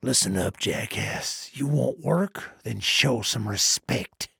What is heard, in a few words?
trader male dislike quest offer